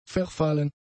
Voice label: "verfallen", German; adjectives

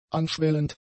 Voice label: "anschwellend", German; adjectives

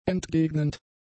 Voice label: "entgegnend", German; adjectives

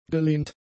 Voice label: "gelehnt", German; adjectives

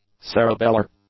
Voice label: "cerebellar", English; adjectives

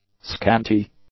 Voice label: "scanty", English; adjectives

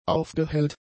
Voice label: "aufgehellt", German; adjectives

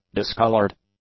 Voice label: "discolored", English; adjectives

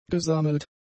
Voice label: "gesammelt", German; adjectives

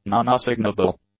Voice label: "nonassignable", english; adjectives

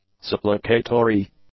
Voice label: "supplicatory", English; adjectives

